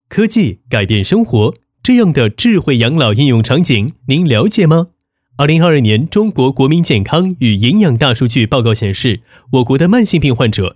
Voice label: 男 宣传片旁白 智慧养老应用场景 年轻科技; 男61-年轻有力; 年轻时尚

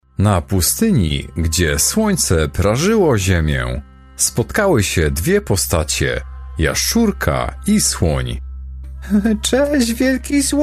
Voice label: 波兰语男声

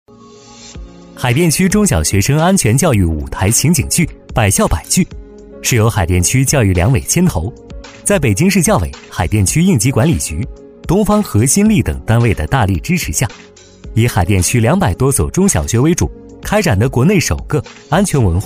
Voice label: 男 专题配音 轻松介绍; 年轻质感; 自然诉说.纪实记录

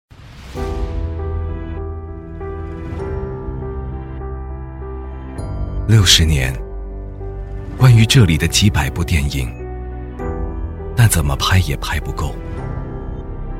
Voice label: 男 走心旁白 质感 走心; 潇湘电影; 质感磁性

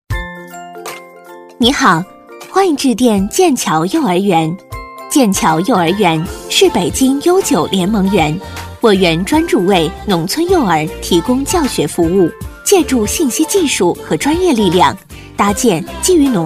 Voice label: 女 电话彩铃; 甜美亲切; 欢迎致电剑桥幼儿园

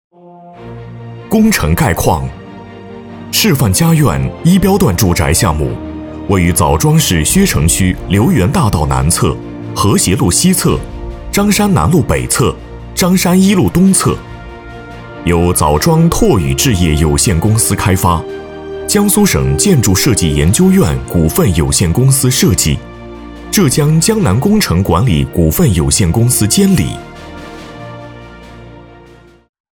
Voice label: 男 工程汇报 大气力度; 大气主流; 大气浑厚; 示范佳苑

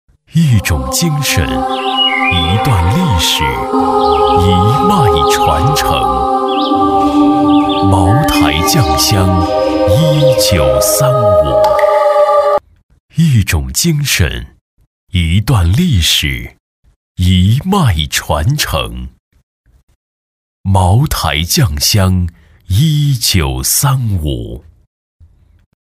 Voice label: 男 品质广告, 硬朗力度 大气浑厚; 茅台酒

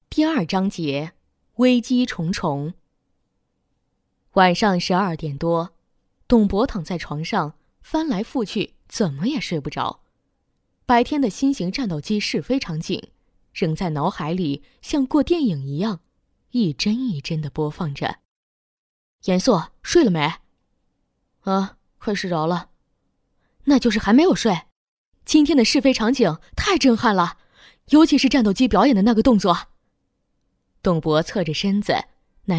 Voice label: 女 绘本; 素人自然; 危机重重

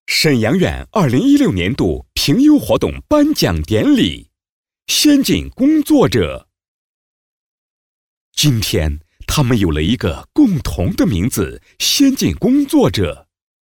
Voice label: 男 颁奖词 年终颁奖词; 沈阳设计院年终颁奖词; 成熟稳重; 激情力度

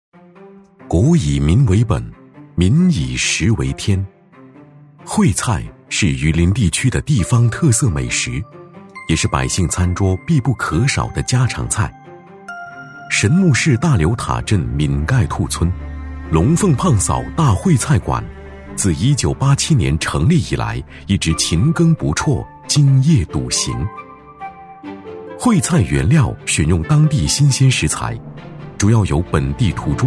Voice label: 男 舌尖体风格; 大气主流; 舌尖感

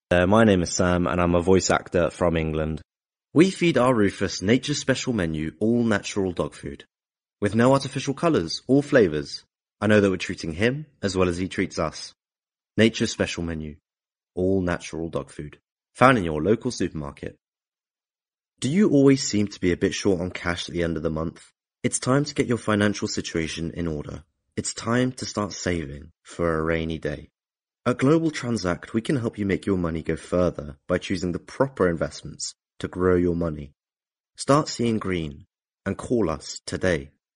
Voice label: 英式男声

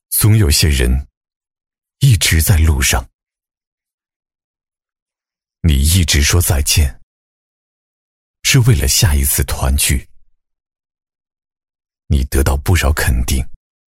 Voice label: 男 走心宣传片 低沉走心; 厚重有力; 质感磁性; 中秋文案

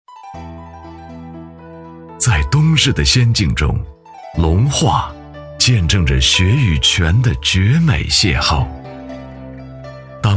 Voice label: 男 景区宣传 温暖磁性; 隆化温泉村; 质感磁性

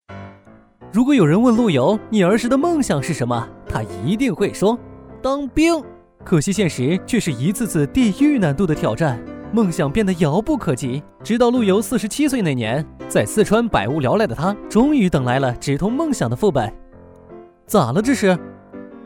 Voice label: 男-角色【科普讲解】, 年轻活力 自然诉说; 陆游

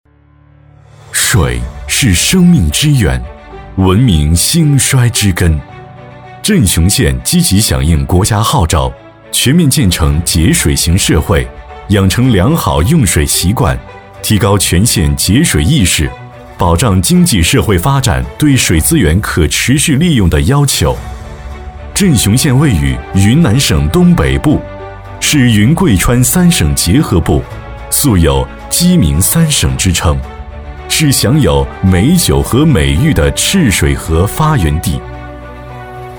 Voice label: 男 专题 环保; 镇雄县节水型社会; 抒情温暖 大气浑厚

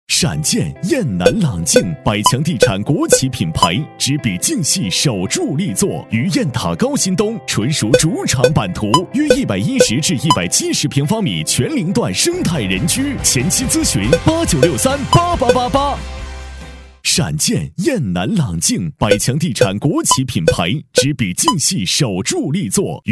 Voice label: 男W3-房地产广告-大气, 喊麦激情 大气激昂

